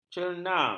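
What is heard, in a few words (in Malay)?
"cerna", Malay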